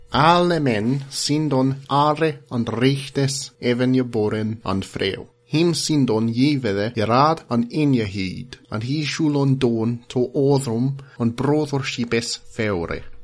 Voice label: udhr oldenglish

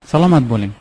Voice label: goodbye uzbek

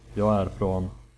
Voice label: im from, swedish

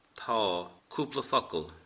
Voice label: "yes a little", Irish; Tá, cúpla focal; Irish (Gaelic)

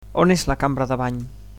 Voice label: "toilet", Catalan

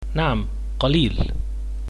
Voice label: a little Arabic

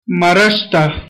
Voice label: help, pashto

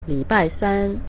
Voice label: wednesday, mandarin

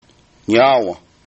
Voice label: thanks, Mohawk

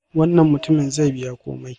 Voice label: pay, hausa

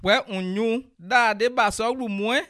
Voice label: how to say, bassa

